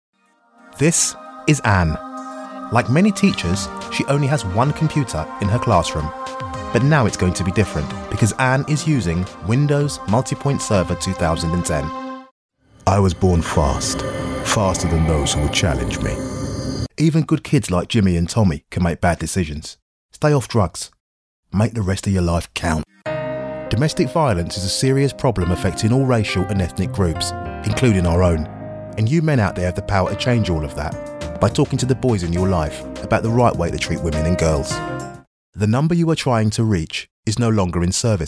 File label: montage natural